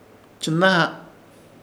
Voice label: "Chɨnãha", Ishir Chamacoco; hacer de toda fuerza

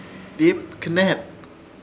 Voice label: "Diipkɨnẽhet", Ishir Chamacoco; extranjero

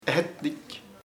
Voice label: wide, chamacoco; ancho

